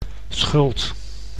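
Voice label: "schuld", Dutch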